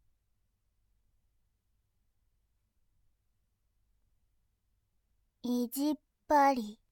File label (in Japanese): 意地っ張り, ASMR; モノラル